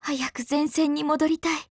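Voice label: fix, Japanese; RPK203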